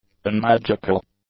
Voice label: "unmagical", English; adjectives